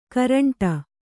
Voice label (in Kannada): "ಗೋರಂಟೆ", Kannada